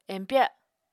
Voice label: "empya", Apatani